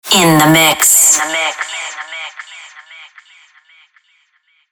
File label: sweeper in the mix female; killerintrosnet